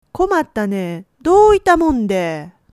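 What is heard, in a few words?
"douitamonde", Japanese dialect, woman; hogen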